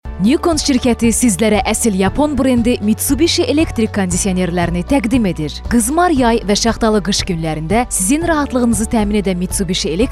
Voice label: Azerice Kadin, seslendirme

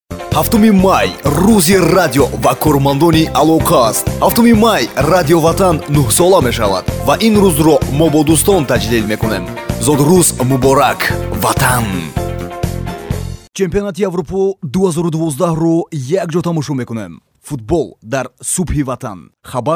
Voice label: Tacikce Erkek, seslendirme; erkek sesler

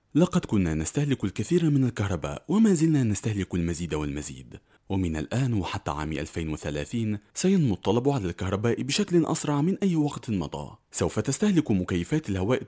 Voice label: Arapca Erkek Klasik Arapca, seslendirme

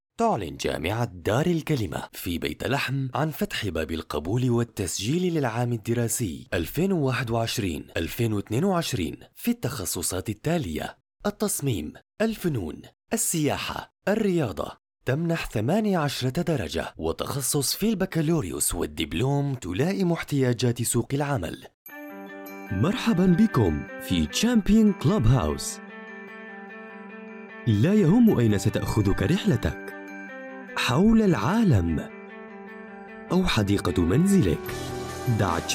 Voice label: Arapca klasik Erkek Suriye, seslendirme; erkek sesler

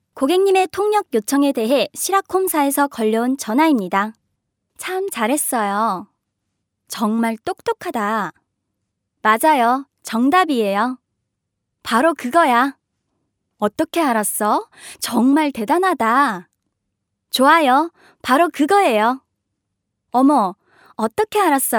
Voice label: Korece Kadin; korece seslendirme; kadin sesler

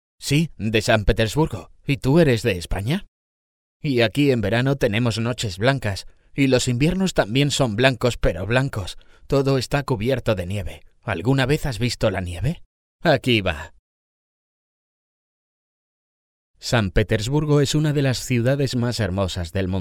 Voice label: Ispanyolca Erkek; seslendirme

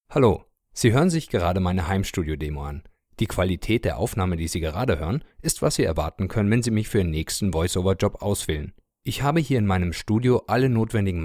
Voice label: Almanca Erkek; seslendirme

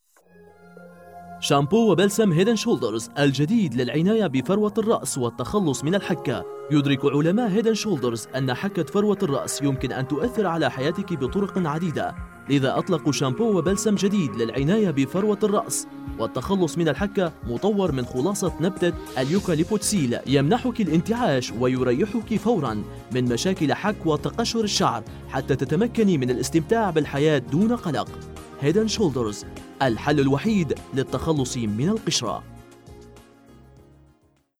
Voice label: Arapca Erkek Klasik Arapca Suriyeli; erkek sesler; seslendirme

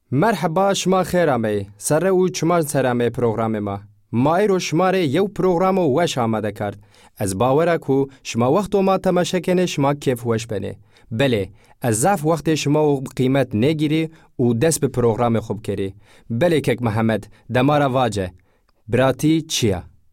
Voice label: Kurtce Erkek Zazaca; kurtce seslendirme; erkek sesler

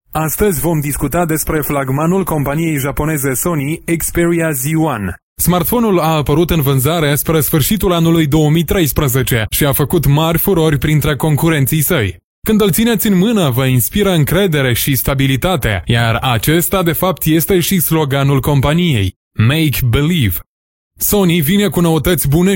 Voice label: Moldovyaca Erkek; moldovyaca seslendirme; erkek sesler

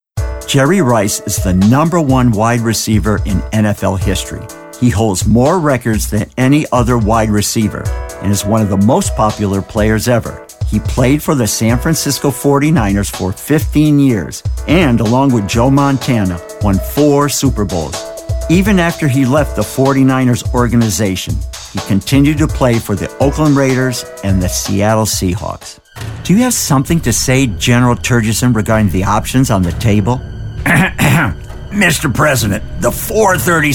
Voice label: Amerikan Ingilizcesi Erkek; Amerikan İngilizcesi Seslendirme

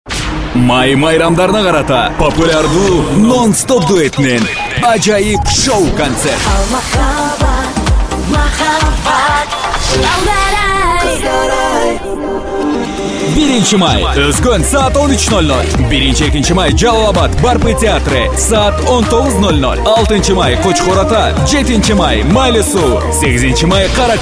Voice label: Kirgizca Erkek, seslendirme

